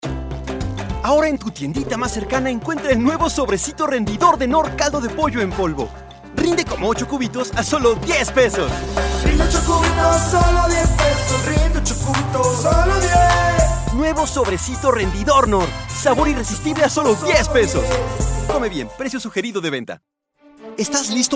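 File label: Latin American Spanish Commercial; GoLocalise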